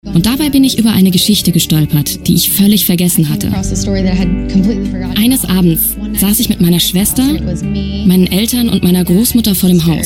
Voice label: Voice Over Medium; Doku-Serie "Hollywood Medium with Tyler Henry

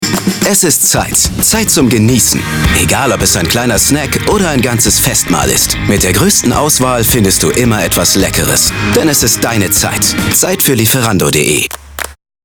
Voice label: commercial; lieferando

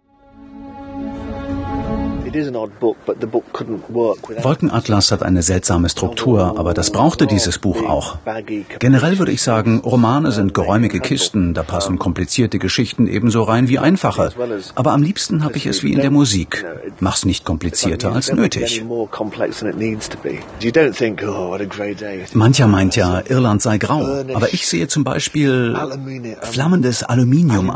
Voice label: voiceover; ZDF aspekte; David Mitchell

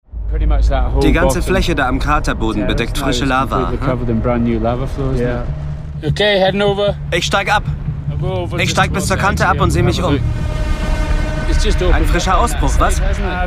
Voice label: VOICEOVER; Aldo Kane

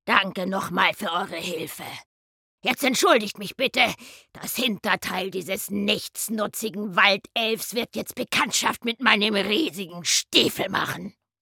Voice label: RPG Orc; Computerspiel

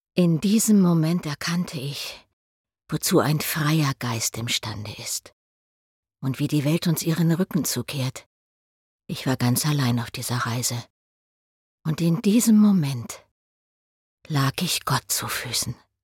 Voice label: Off Serious; Computerspiel

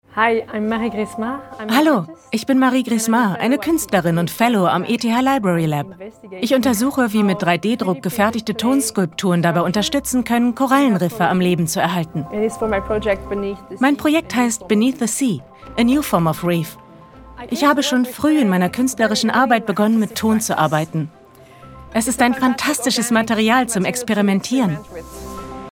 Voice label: voiceover; ETH

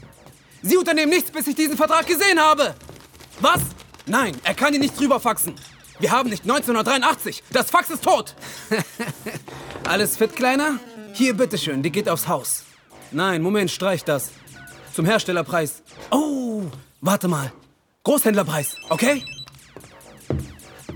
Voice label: Animation

